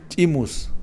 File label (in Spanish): poco, Kakchikel